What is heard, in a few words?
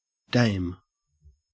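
"dame", Australian English; pronunciations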